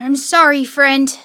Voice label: defeat, en; cookierun